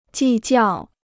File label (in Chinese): "计较", Chinese